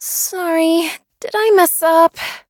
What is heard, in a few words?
defeat, en; cookie run